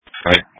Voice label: Police Dispatch